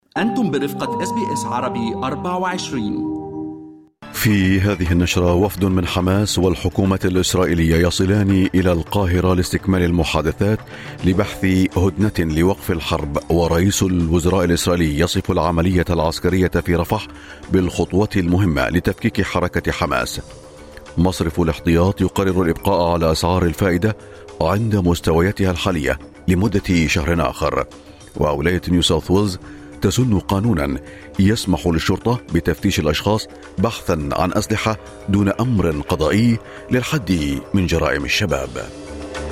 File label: arabic, newsflash; morning news